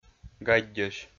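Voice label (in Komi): "гаддьӧсь", Komi-Zyrian, male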